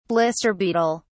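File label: "blister beetle", British English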